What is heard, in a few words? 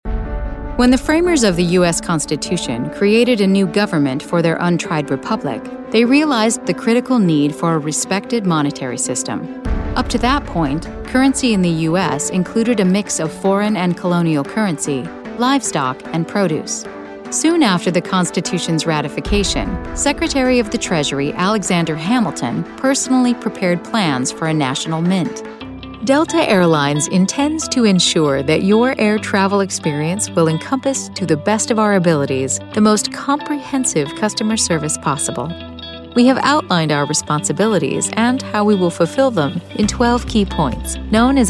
Corporate Narration; BOSSY FRIENDLINESS. YOUTHFUL RESONANCE